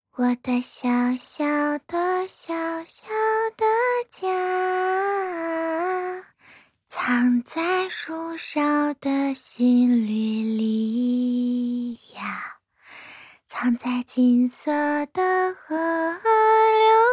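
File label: voice Chinese idle; Fina; Feina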